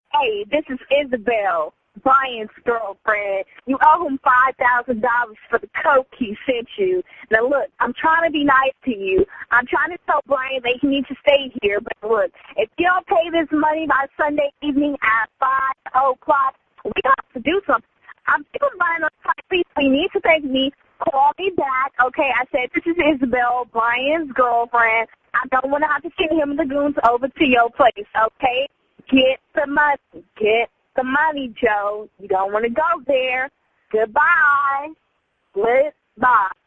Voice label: voicemail